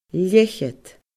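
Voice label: "leth-cheud", Scottish Gaelic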